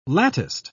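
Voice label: "latticed", British English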